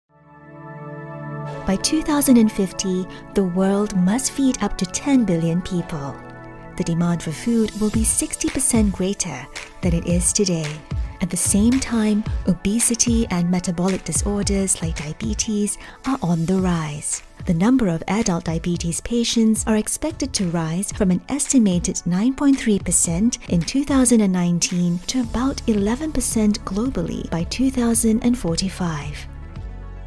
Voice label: Publicity Campaign Natural Genuine Read, Female VO; Based in Austin, Texas